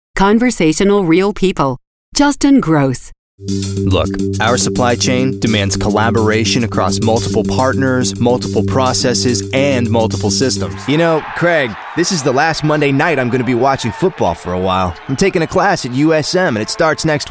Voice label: Regional Radio